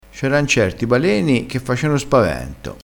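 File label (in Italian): "baleno", dialettale crespinese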